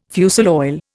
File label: "fusel oil", Indian